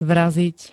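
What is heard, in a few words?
"vraziť", Slovak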